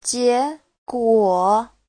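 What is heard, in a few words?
"jiéguǒ", Chinese; Giáo trình Hán ngữ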